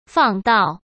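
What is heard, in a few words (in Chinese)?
"放到" Mandarin Chinese; hsk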